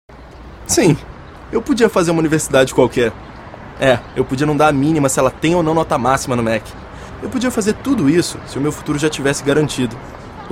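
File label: Brazilian Portuguese, male, corporate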